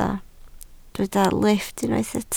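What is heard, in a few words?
"lifft" Welsh, spoken word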